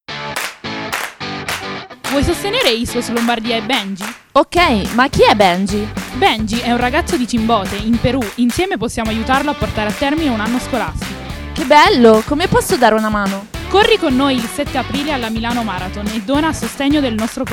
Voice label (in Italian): spot con musica